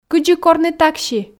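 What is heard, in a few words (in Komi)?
кыдзи корны такси, Komi, female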